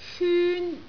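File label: "hyun1", Cantonese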